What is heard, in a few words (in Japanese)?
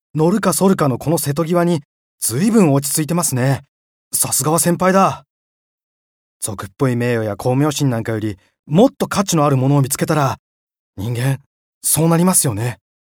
青年